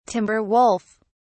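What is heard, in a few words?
"timber wolf", British English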